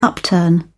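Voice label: "upturn", British English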